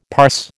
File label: "parse", American